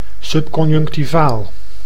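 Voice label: "subconjunctivaal", Dutch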